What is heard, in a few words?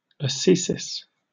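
"ascesis" English, British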